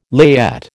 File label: "layette", American